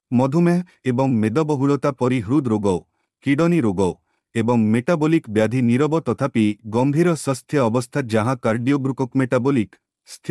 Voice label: Male Odia